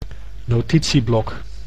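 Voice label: "notitieblok", Dutch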